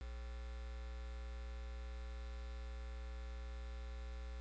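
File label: "ring", spoken word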